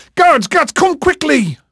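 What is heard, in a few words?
English male, voice; Pirates Of The Caribbean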